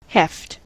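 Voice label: "heft", US English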